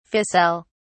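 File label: "ficelle", English, British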